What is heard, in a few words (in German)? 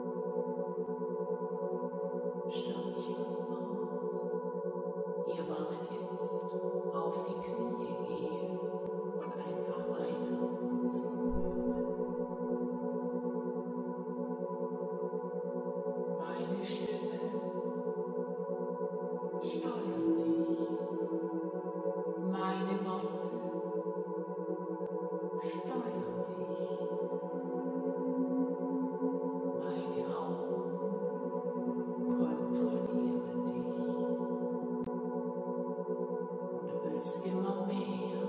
Trigger und Binaurale Beats